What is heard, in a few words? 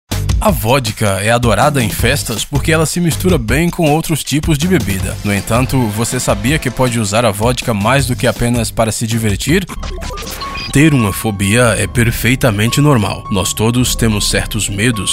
Portuguese Brazillian